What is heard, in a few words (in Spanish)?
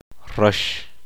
"verde", uspanteko